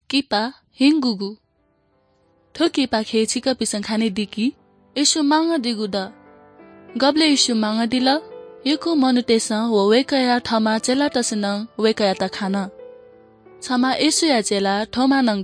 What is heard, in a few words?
good news newari bhaktapur